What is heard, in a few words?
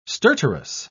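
"stertorous", British English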